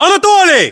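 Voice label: "anatoly", battlechatter, Russian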